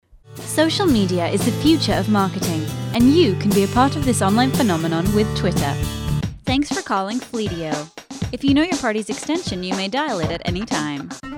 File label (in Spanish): comercial inglés UK